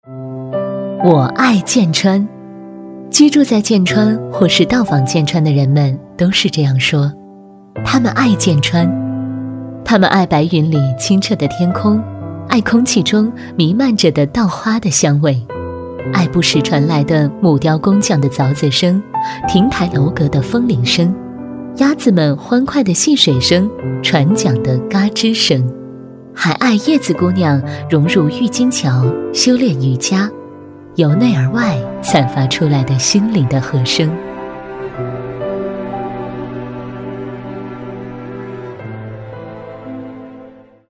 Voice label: 旅游解说 讲述

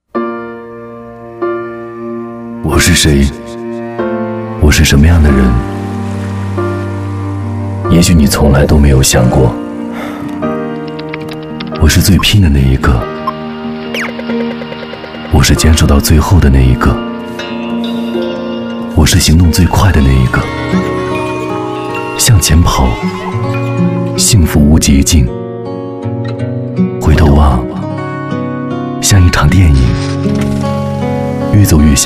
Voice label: 男国 其他 微电影 模仿; 模仿我是谁中国共产党

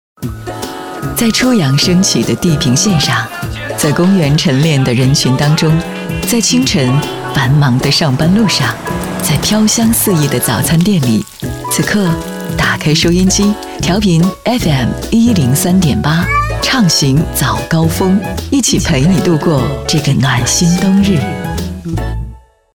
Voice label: 女 其他 栏目 电台包装 交通广播; 吉林交通广播

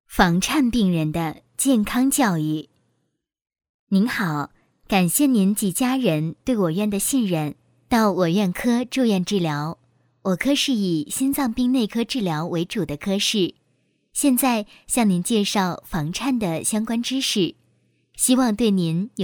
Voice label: 培训课件 医疗知识, 女

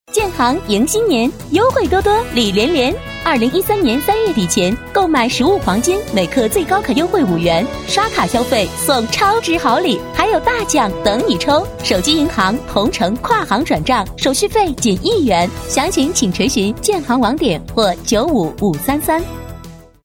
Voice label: 女 广告 促销 甜美; 建行

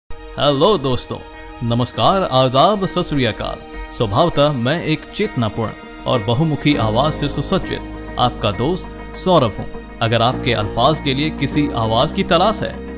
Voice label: 印地语男 外语 小语种

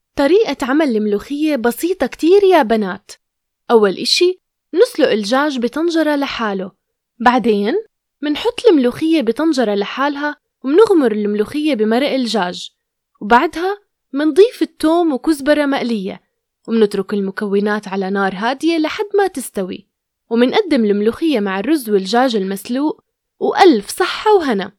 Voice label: 阿拉伯语女 外语 小语种

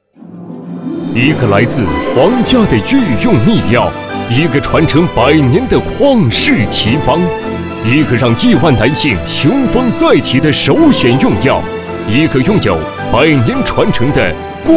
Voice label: 男 广告 电购 医药; 宫廷大药丸版头

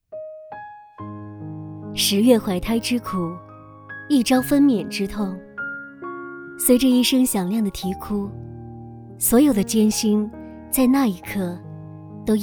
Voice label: 专题 企业 月子中心 亲切

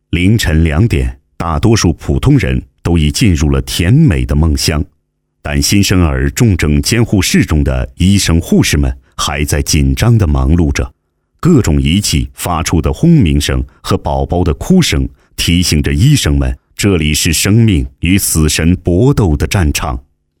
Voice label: 男国 其他 微电影; 护士

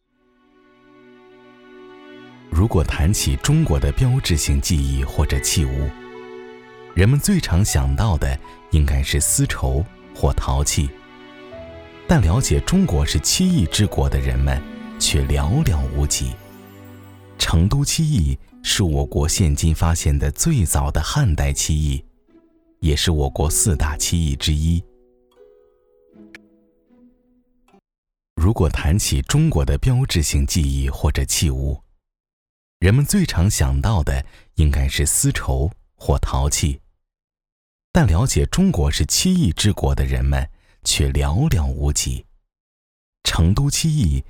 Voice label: 男国 纪录片 自然科教 丝绸

